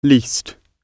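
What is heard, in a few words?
"least", British English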